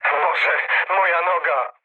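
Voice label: damaged pilot, mood low, Polish speech; War Thunder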